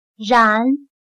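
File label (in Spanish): "然", chino mandarin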